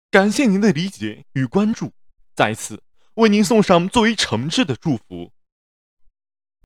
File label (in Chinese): 正式